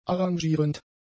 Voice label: "arrangierend", German; adjectives